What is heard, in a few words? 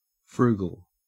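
"frugal", Australian English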